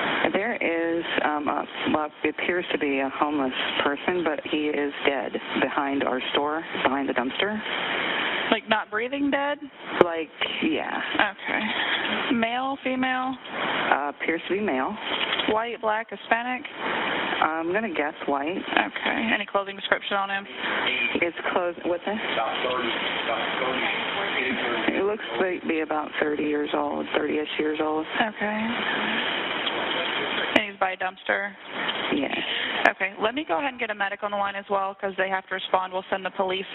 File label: 911 phone call